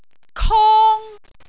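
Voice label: "kong1", Cantonese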